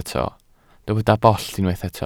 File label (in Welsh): "bollt", Welsh